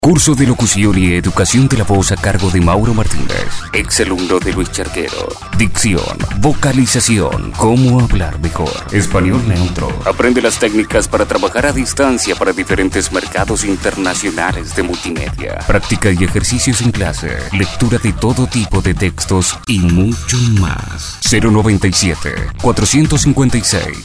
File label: spot